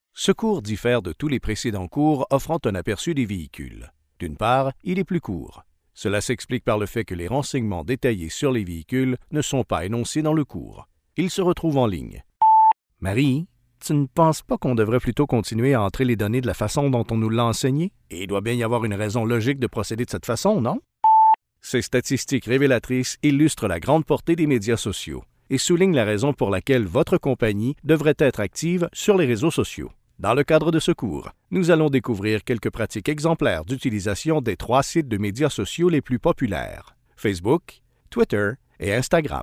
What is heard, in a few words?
french canadian e-learning